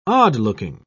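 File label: "odd-looking", English, British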